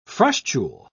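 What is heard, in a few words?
"frustule", British English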